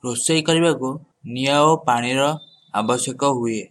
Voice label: fire and water, odia